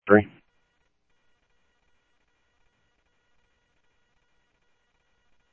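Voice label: Sheriff East County, scanner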